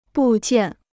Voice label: "部件", Chinese